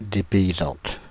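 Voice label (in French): "dépaysante", French, Paris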